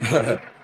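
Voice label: "huh huh", vocal sample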